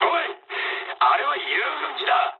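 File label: player killed ally mood med, speech japanese; War Thunder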